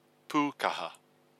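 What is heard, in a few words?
"pukaha", Māori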